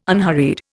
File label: "unhurried", Indian